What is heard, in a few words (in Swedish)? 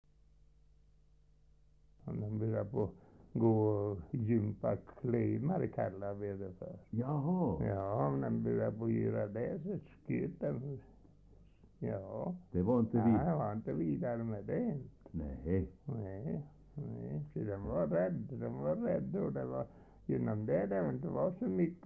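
Trönö Hälsingland, dialekter; Svenska sagor; Folkminnen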